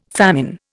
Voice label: "famine", Indian